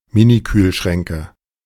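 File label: "Mini-Kühlschränke", German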